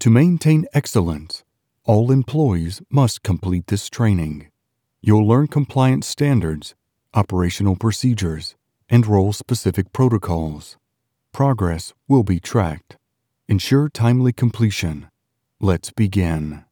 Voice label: Business Employee Training Corporate, male voice over